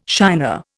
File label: "shiner", Indian